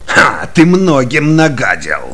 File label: Cheer; Kingpin Fargus